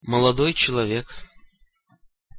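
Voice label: "molodoj celovek", Russian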